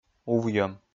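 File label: "увйӧм", Komi, male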